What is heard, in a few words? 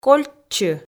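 "кольччы", Komi, female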